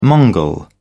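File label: "mongol", English, British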